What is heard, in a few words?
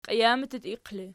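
"qijamiq" ergative, Archi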